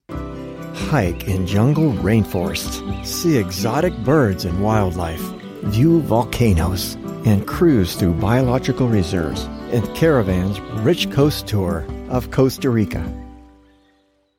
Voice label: friendly